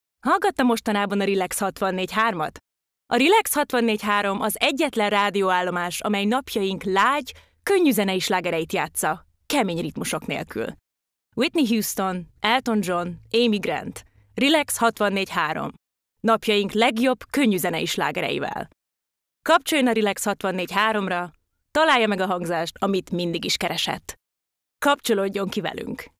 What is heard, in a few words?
Hungarian Voice Sample - Radio